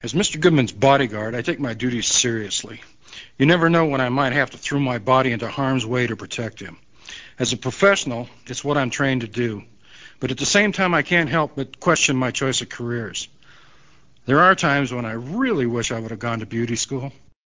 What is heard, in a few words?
irish greet